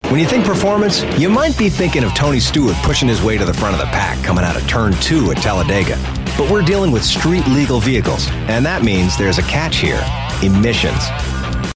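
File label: American English, Commercial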